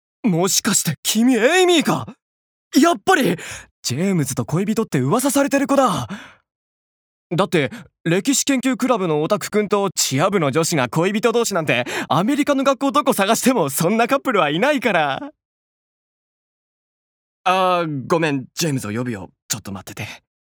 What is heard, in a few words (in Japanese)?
外画